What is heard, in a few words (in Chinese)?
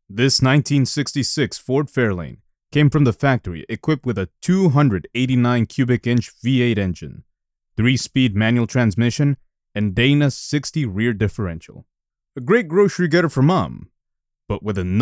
外籍英男 汽车介绍 干音; 福特汽车介绍